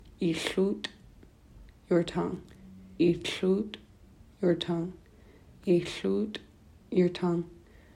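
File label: your tongue, tli; body parts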